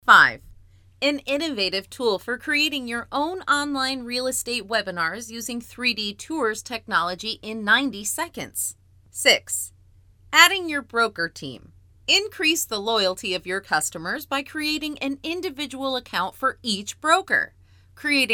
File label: american